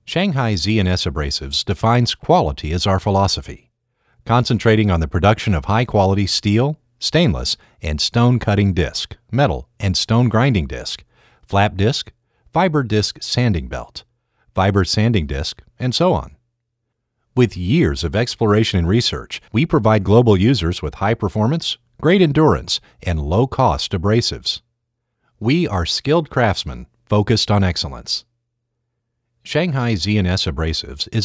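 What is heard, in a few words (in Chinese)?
外籍英语美式口音宣传片大气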